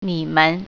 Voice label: you, Mandarin